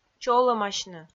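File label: "чолӧмасьны", Komi, female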